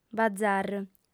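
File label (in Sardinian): "bazàr", female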